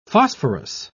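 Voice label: "phosphorous", British English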